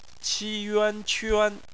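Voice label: "quan", Mandarin Chinese; phonetics; bpmf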